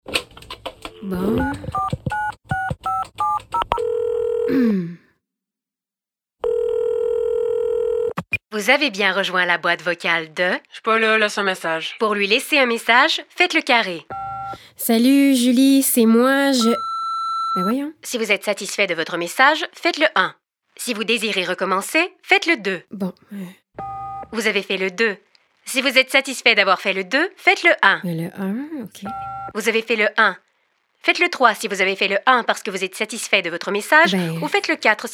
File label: Voice MIX Telephone Skit